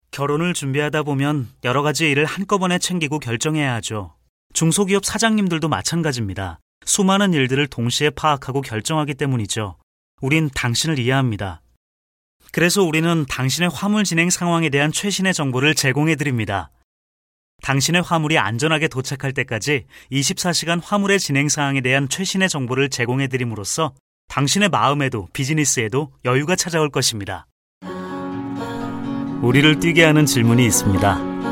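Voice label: M, Korean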